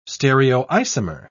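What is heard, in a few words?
"stereoisomer", British English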